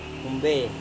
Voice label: "humbe", Serewen